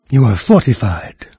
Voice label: fortified, narrator; Fate Undiscovered Realms